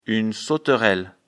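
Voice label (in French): "sauterelle", French; afrikalan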